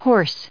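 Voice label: "horse", English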